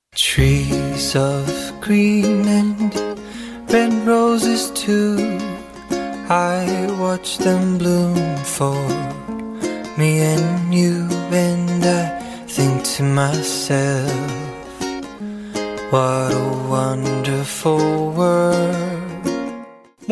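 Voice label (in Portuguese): despedida pra quem fica masculino, telemensagem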